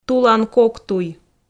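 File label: "тулан кок туй", Komi, female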